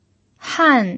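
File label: "han", fourth tone, Mandarin Chinese pinyin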